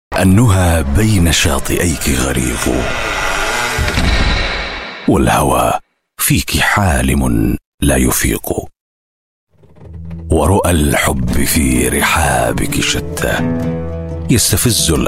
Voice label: arabic voice over male documentary

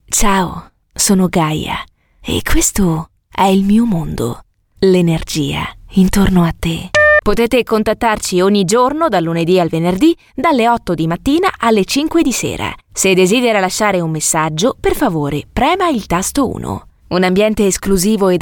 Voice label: italian voice over female narrator

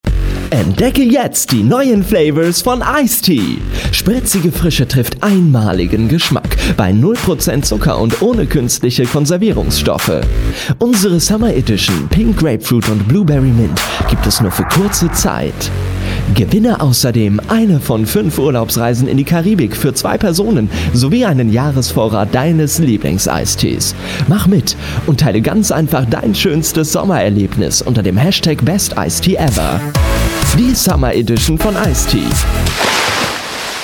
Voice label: german voice over male commercial

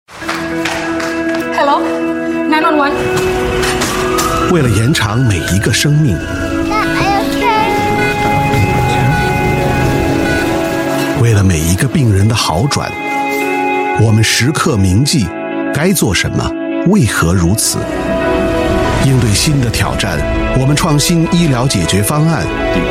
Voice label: chinese voice over male narrator

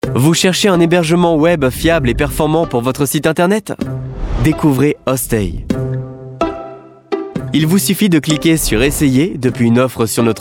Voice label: french voice over male narrator

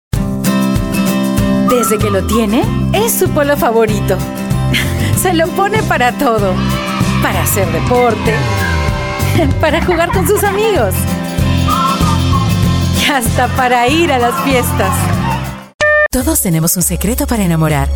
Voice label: spanish voice over female commercial

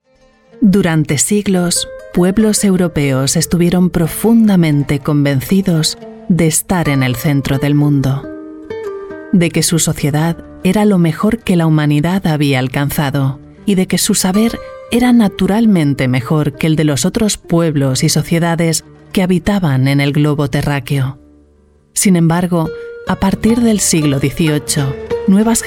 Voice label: spanish voice over female narrator

